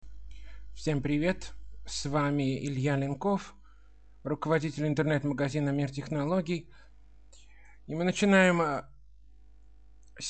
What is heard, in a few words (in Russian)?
demonstraciya govoryashego termometra dlya zamera temperatury tela